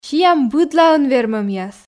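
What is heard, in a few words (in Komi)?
"сиам быдлаын вермӧмъяс", Komi, female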